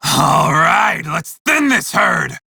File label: Crew Enemy Spot, Male; Dialog; Warframe; Railjack Crew; Red Veil